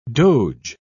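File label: "doge", British English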